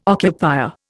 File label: "occupier", Indian